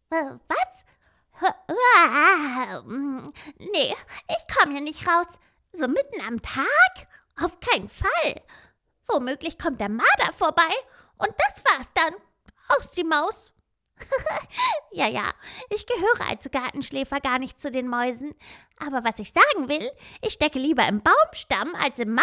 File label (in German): Kinder Museumstext; Gartenschläferin